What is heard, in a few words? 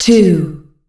fem two; Monster Hunt 2 Lite